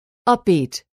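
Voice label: "upbeat", English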